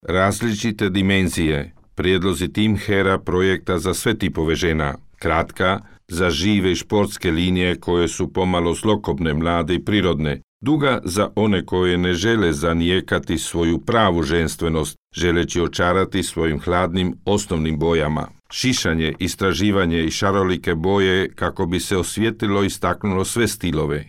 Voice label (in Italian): croato